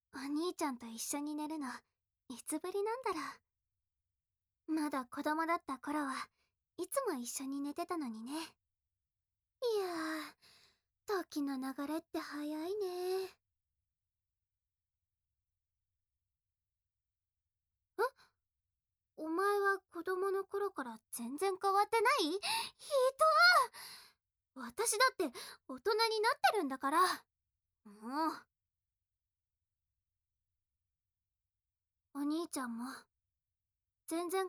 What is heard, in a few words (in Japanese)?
添い寝